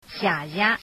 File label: thanks shanghainese